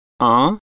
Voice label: number one french

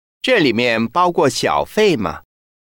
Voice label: tip included mandarin

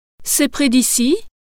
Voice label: is it near french

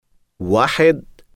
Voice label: number one arabic

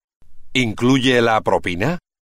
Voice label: tip included spanish

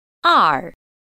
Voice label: number two mandarin

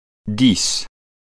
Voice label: number ten French

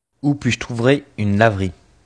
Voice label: where can i do laundry French

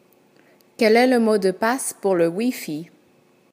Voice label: wifi password French

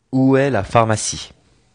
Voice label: where is the pharmacy French

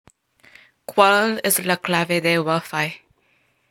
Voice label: wifi password Spanish

